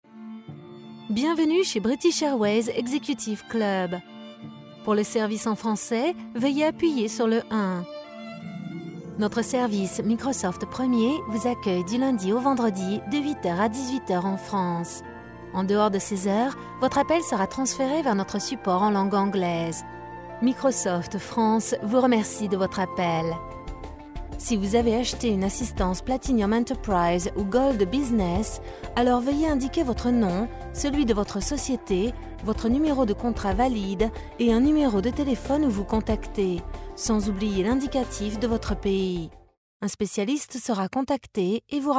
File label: telephone